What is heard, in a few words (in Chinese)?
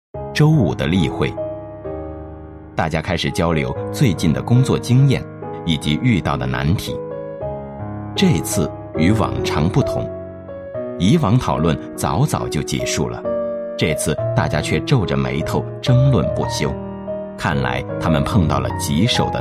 男 旁白; 变电站未来规划